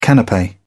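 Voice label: "canape", British English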